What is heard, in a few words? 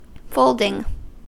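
"folding" US English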